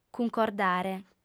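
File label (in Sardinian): "cuncordare", female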